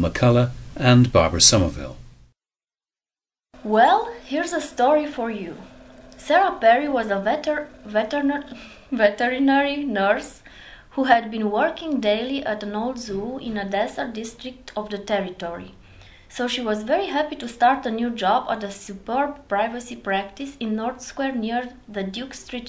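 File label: romania, International Dialects of English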